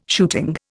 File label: "shooting", Indian